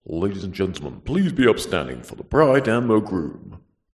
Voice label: human voice male uk wedding annoucement ladies and gentlemen be upstanding for th